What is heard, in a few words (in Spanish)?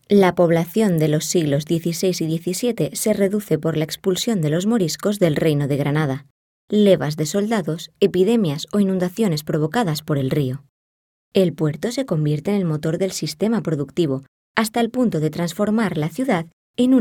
Narrativo, Voices